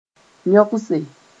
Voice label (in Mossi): "yõgse", moore